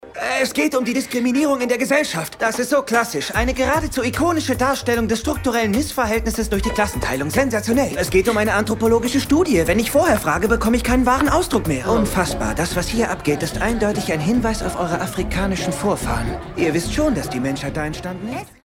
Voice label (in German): hektisch intellektuell; Haarige Angelegenheiten; Julinho